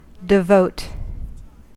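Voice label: "devote", American English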